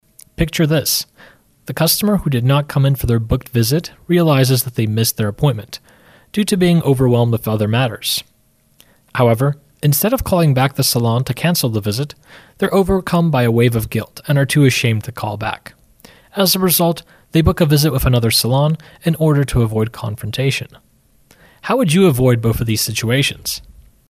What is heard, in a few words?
audiobook short version